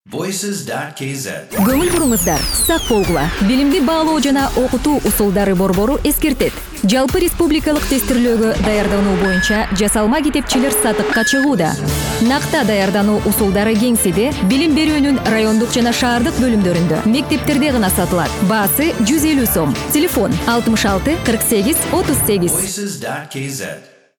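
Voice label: Kyrgyz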